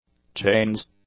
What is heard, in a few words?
"chains", English; nouns